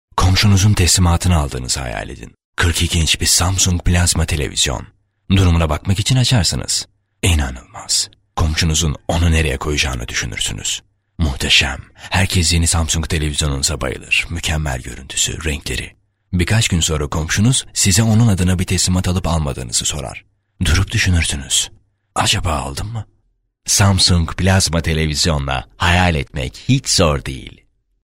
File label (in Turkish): erkek, türkçe seslendirme